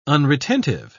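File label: "unretentive", English, British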